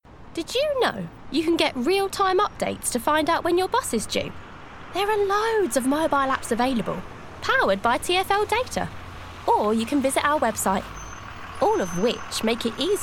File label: Commercials